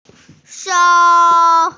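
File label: "sou1", Mandarin Chinese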